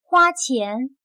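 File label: "花钱", Chinese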